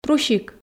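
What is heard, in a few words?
"трусик", Komi, female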